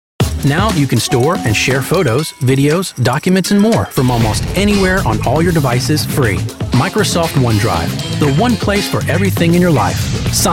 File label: commercials